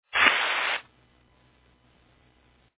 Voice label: Fire Dispatch

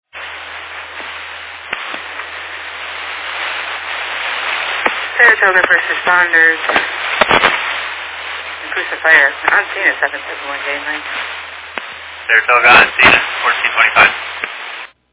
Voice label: Fire Dispatch

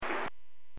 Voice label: Aviation 121.5 Emergency

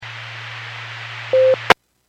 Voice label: Skywarn 146.67; Stevens Point

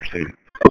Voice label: Police Sheriff Dispatch

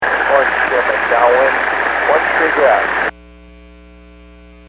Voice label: Aviation 122.7; KSTE

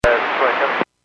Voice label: Airports 121.5 Emergency, Radio

